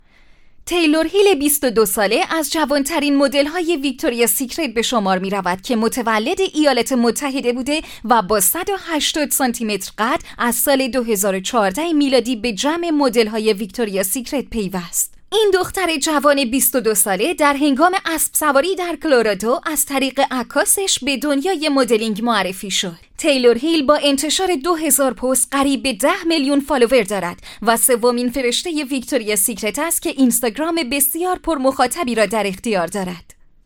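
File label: Farsi Narrative; GoLocalise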